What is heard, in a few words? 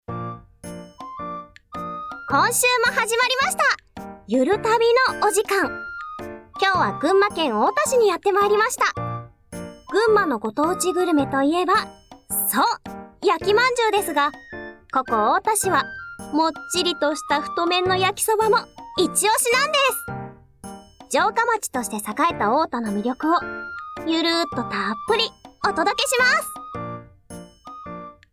narration